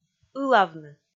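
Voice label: "ылавны", Komi, female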